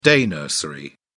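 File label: "day nursery", English, British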